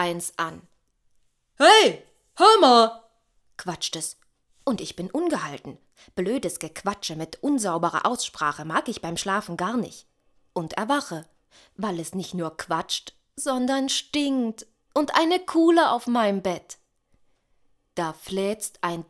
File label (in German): lesung der nacht